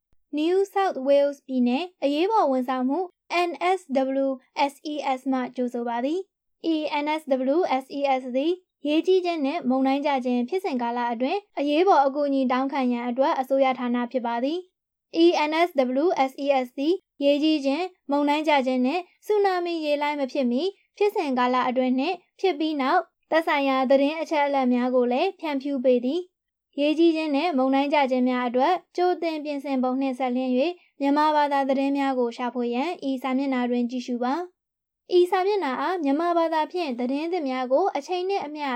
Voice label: burmese